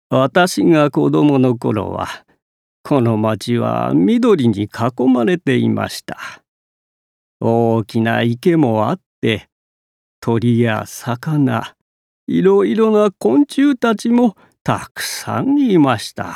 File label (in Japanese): 街への愛着を語る老人